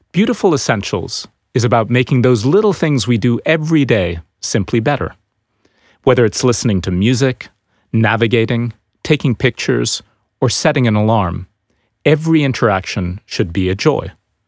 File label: Voice Over Sample; Nokia